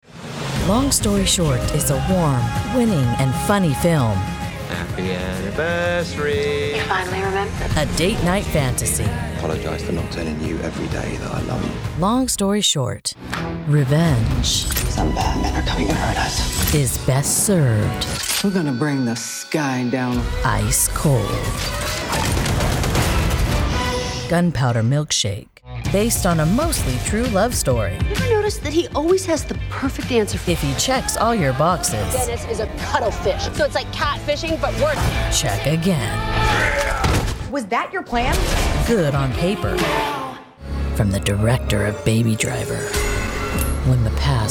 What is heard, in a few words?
women, trailers; Unique, rich/deep, wry/dry, warm/friendly, fun, sassy, confident, and versatile (30-50's).